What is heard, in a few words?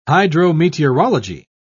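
"hydrometeorology", British English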